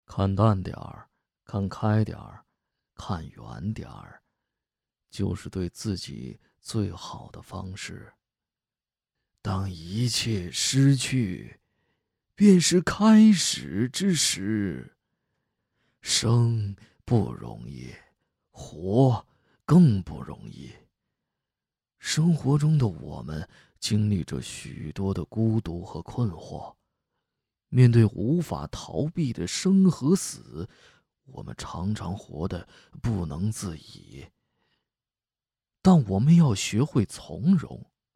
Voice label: 中老年

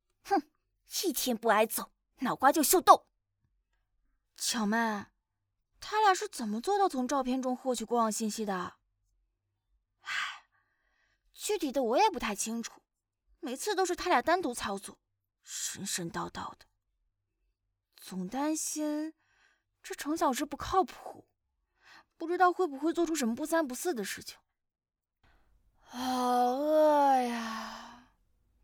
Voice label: 少女 动漫 影视剧 合集

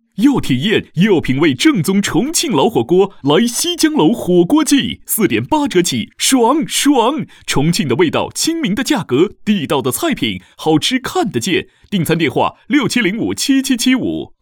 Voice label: 餐饮广告促销广告; 栖江楼山城老火锅

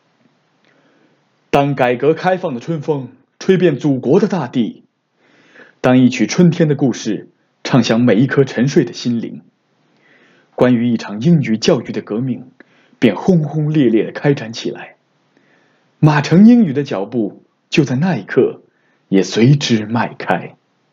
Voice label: 企业宣传口吻

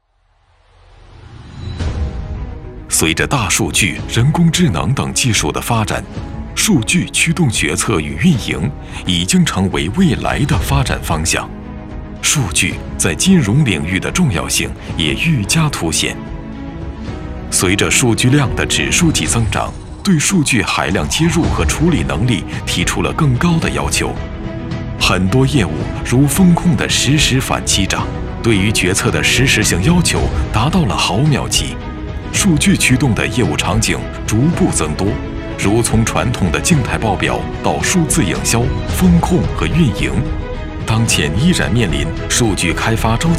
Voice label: 科技 宣传片 理性 大气 讲述; 大数据; 人工智能

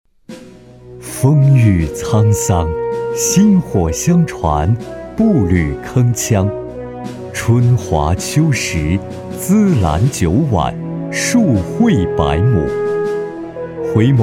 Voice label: 学校宣传中学大气有韵味

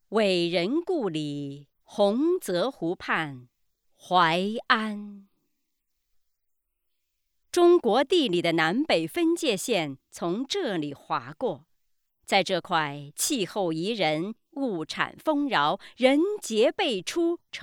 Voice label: 宣传片; 淮安红椒

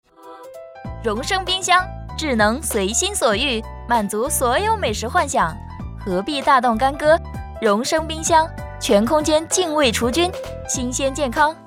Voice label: 广告 冰箱 欢快; 容声冰箱

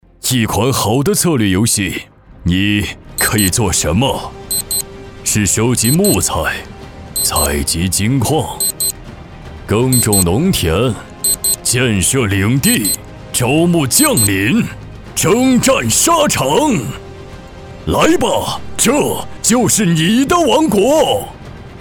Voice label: 浑厚大气旁白; 重返帝国

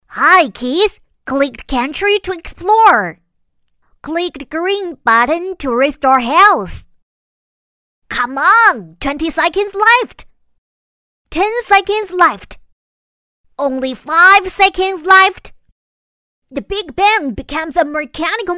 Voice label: 英文动画; 猪猪侠

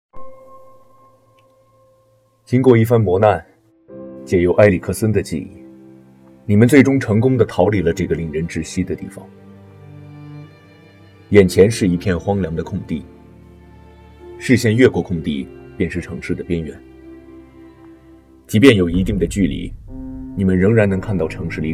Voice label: 剧本杀 密室类 旁白

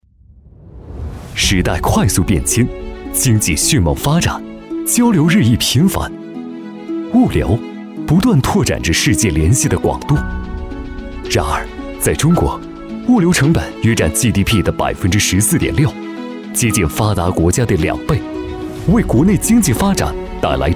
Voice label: 物流宣传视频科技感; 快成物流

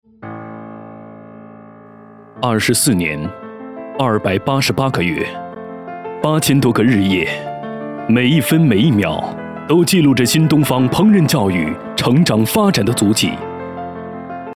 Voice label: 专题片

